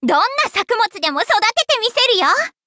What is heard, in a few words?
levelup ja; cookierunwiki